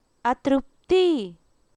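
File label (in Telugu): "అతృప్తి", Telugu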